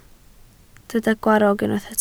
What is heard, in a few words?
"gwarrog", Welsh